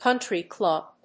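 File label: "country club", English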